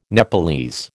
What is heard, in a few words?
"Nepalese", American